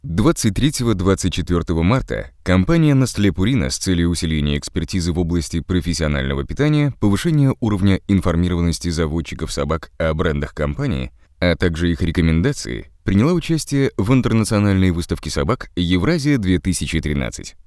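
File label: zg positive dynamic, Russian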